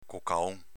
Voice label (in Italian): "cocaon", dialetto veneto